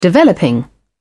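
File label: "developing", British English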